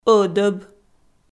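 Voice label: "ӧдӧб", Komi, female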